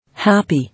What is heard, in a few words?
"Happy", English